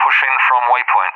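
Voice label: "pushing from waypoint", English, UK; Messages; DCS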